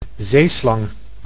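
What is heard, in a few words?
"zeeslang", Dutch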